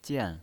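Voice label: "jian", fourth tone, pinyin voice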